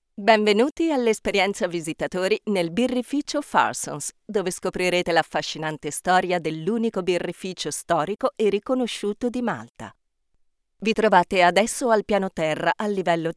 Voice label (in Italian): ITA audioguide